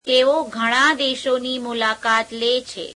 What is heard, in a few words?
gujarati, he visits many countries